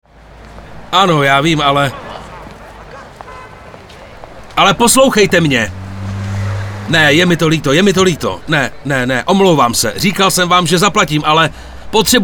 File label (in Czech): ukazka dabingu